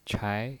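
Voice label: "chai", Mandarin Chinese, second tone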